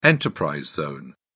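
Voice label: "enterprise zone", British English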